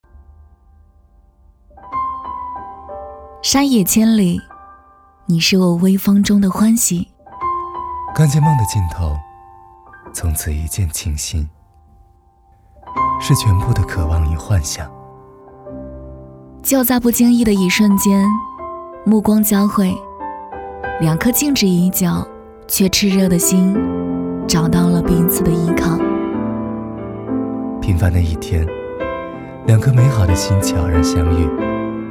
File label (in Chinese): 男 旁白 温情 婚礼